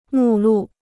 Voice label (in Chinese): "目录", Chinese